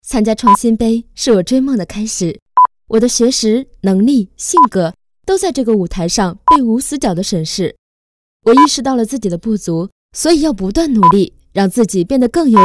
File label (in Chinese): 角色自信女声